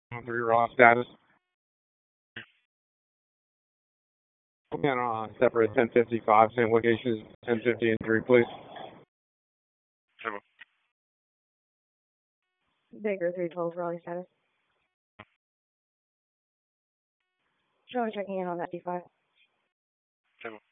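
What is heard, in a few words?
Police Northeast District Dispatch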